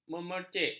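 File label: "memercik", Malay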